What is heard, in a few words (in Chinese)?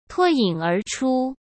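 "脱颖而出", Mandarin Chinese; hsk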